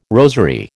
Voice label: "rosary", American